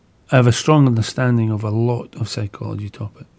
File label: interviewee male filler